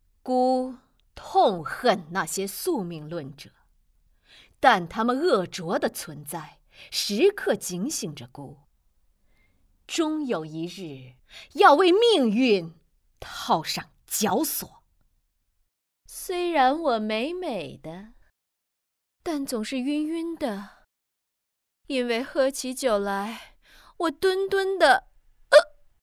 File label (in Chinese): 御姐攻气女王妩媚